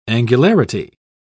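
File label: "angularity", British English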